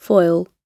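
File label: "foil" English word; oxford api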